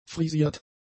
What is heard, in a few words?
"frisiert", German; adjectives